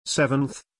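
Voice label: "SEVENTH", English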